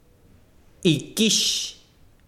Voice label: besiro pluma, chiquitano